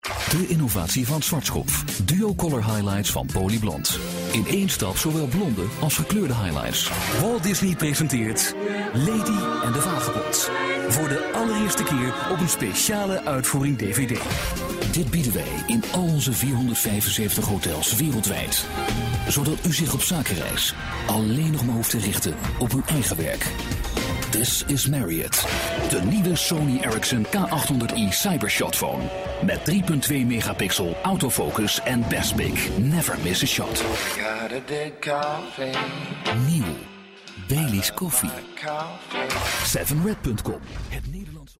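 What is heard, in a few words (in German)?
werbung, Dutch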